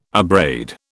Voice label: "abrade", British